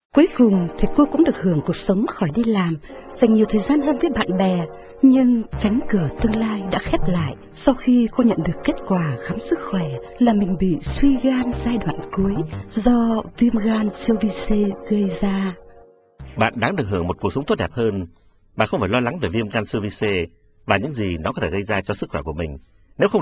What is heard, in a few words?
Vietnamese